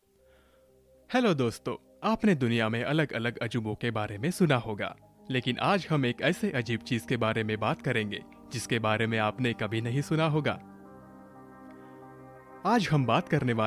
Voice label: YOUTUBE NARRATION HINDI